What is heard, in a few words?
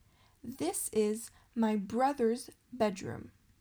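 "This is my brothers bedroom", English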